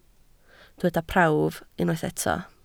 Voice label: "prawf", Welsh